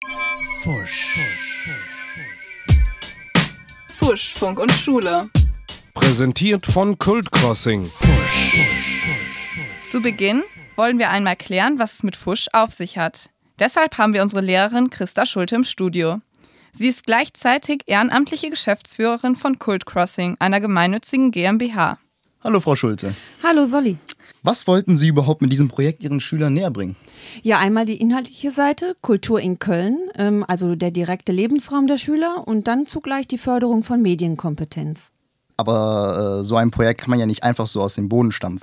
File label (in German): Interview